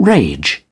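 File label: "rage", British English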